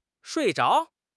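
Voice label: "shui4 zhao2", Chinese; HSK3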